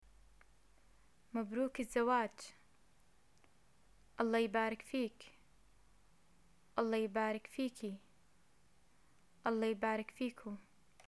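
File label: greet marriage, Jordanian arabic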